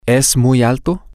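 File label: Spanish, male; is He Very Tall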